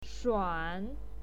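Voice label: "shuan", Mandarin Chinese, third tone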